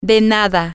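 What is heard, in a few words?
youre welcome, Spanish, female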